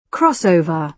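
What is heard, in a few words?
"crossover", British English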